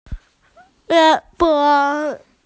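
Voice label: "ge bo" (arm), Chinese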